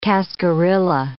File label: "cascarilla", English